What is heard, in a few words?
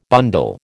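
"bundle", American English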